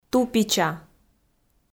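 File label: "тупича", Komi, female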